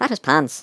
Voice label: "missed", Irish; Worms Armageddon